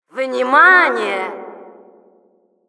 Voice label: Announcer warning, ru; Administrator; "Внимание!"